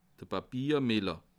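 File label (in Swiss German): "papiermiller", Bas Rhin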